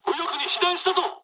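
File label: right wing mood low, speech japanese; War Thunder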